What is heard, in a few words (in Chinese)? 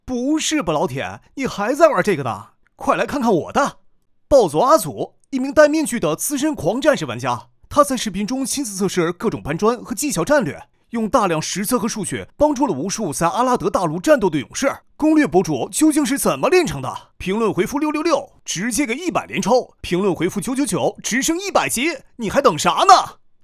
男, 信息流